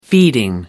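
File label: "feeding", British English, dictionary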